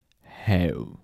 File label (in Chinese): "厚", 台城, 男